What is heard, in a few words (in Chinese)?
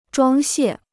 "装卸", Chinese